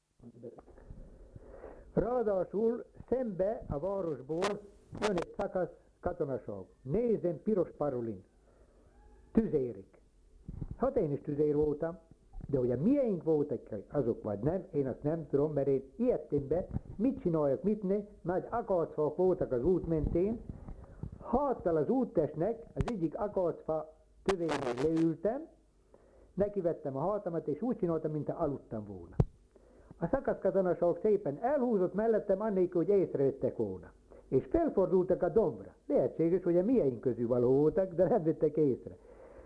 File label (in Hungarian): nyelvjaras gyujtesek